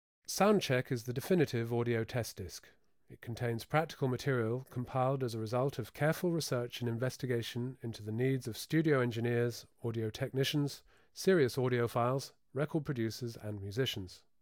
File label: Spoken word (mono); Alan Parsons & Stephen Court - Sound Check 1993